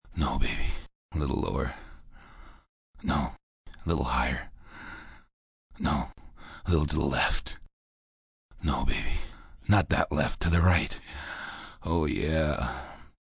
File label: Baby Lower Higher, Male, Various commands and moans, comical, pleasure, orgasm, funny, sex; innuendo, speaking, (human voice); Groaning